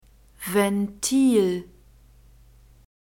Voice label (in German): "ventil", German